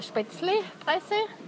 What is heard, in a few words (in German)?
Dialekte; GHV Dialekte 2018